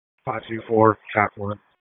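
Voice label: Police Southeast District Dispatch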